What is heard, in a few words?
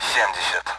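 Speech Sound Russian Common AWACS Numbers; DCS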